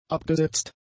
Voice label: "abgesetzt", German; adjectives

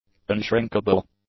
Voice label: "unshrinkable", english; adjectives

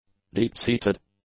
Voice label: "deep-seated", english; adjectives

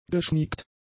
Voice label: "geschmiegt", German; adjectives

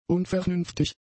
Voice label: "unvernünftig", German; adjectives

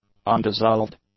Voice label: "undissolved", English; adjectives

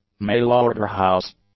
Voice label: "mail order house", English; nouns

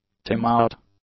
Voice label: "timeout", English; nouns

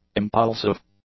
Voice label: "impulsive", English; adjectives

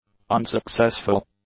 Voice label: "unsuccessful", english; adjectives

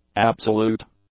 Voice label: "absolute", english; adjectives

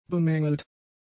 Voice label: "bemängelt", German; adjectives

